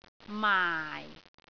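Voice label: "maai4", Cantonese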